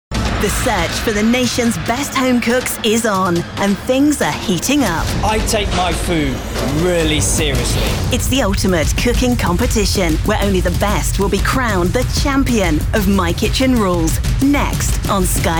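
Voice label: British English, Variabel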